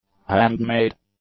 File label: "hand-made", English; adjectives